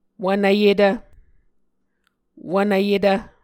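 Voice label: "wvnvyetv", Muscogee word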